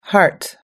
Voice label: "heart", US English